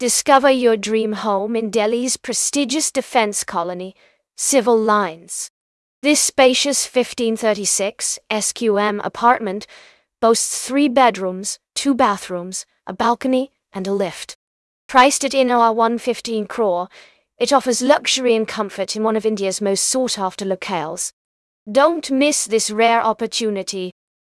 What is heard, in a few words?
narration audio